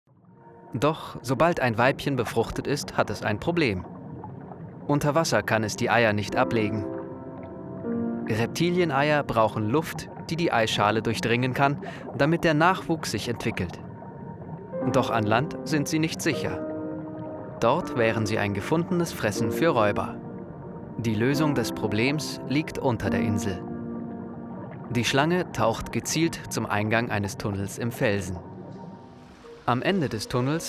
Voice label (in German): VO Doku